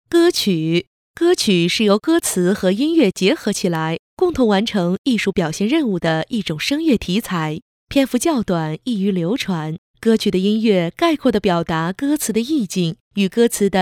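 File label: chinese mandarin female e-learning; voice demos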